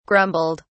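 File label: "grumbled", British English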